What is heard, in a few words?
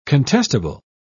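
"contestable", British English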